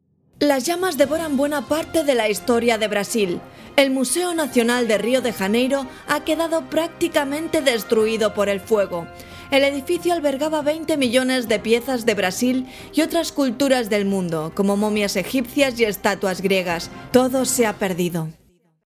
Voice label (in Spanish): muestras noticias